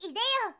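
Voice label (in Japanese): "ideyo", Japanese girl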